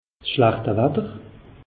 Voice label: "schlechte watter", alsace; meteo

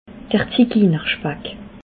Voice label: "ziginerspack", alsace

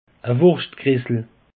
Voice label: "wurschtgressel", alsace; peche

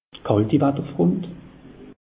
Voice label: "kalti", alsace; meteo

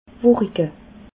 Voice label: "wurige", alsace

